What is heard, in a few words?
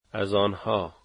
dari their